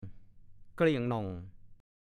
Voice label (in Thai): "เกลี้ยงหน่อง", ภาษาโคราช; หมดเกลี้ยง ไม่เหลือหลอ